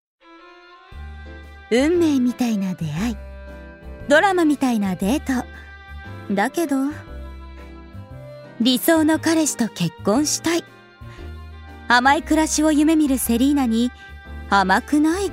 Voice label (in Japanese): ナレーション